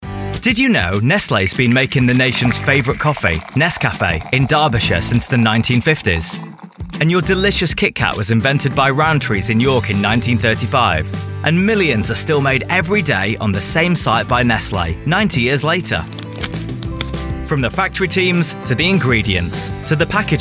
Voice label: commercial